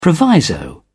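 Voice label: "proviso", British English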